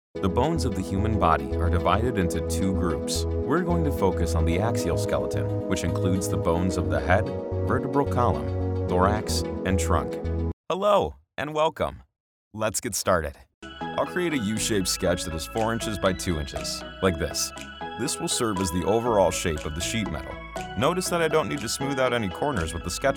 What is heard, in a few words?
eLearning